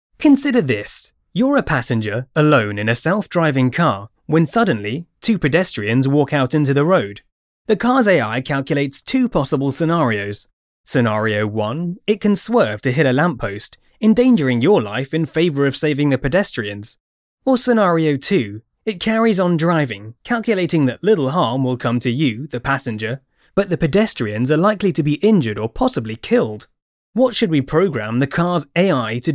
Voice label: Narration dry